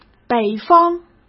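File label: "北方" Mandarin Chinese; hsk; chinese-flashcards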